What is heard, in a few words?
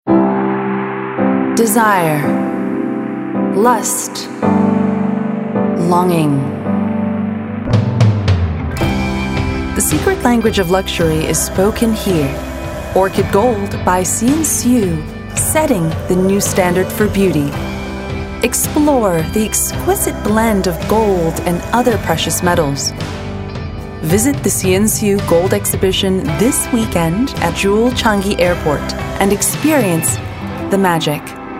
Asian English Jewelry